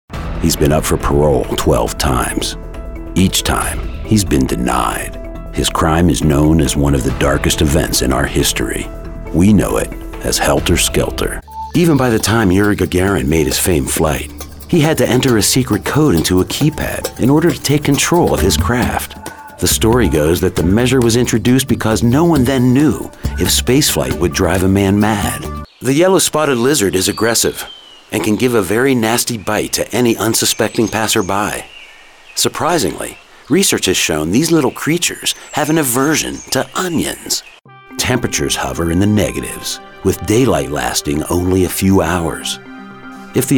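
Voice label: narration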